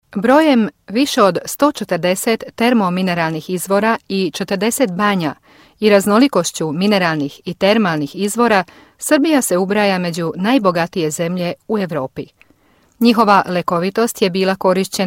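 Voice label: serbian female voice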